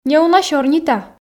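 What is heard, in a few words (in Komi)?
неуна сёрнита, Komi, female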